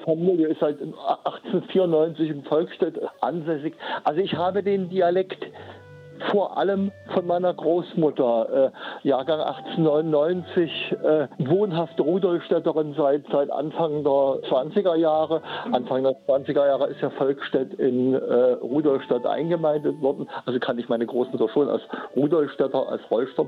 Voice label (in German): Mundart Buch Vorstellung